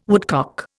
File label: "woodcock", Indian